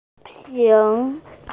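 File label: "ping2" Chinese, female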